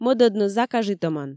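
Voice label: "мӧдӧдны закажитӧмӧн", Komi, female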